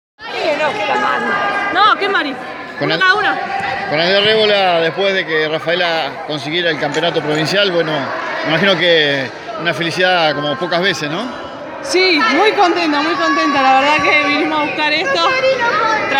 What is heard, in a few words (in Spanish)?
Nota de voz